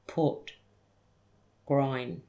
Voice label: "put" groin